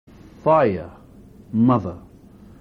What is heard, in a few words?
"whaea", Maori